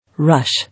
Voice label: "Rush", English